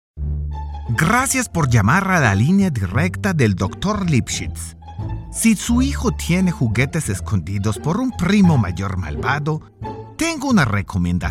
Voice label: Funny Charater